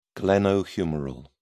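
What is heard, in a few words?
"glenohumeral", British English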